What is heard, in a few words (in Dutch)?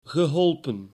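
"geholpen", Dutch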